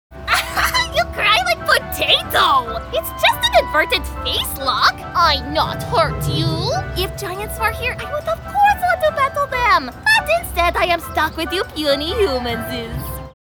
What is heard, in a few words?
Young Adult Goofball, Character, Cartoon, Goofy Young Adult Female